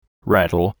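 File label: "rattle", English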